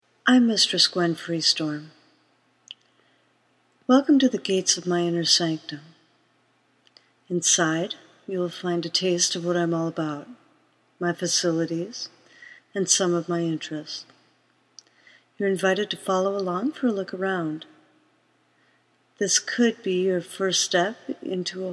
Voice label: voice message